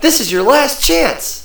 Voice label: Announcer Chance; BREAKIN BATTLE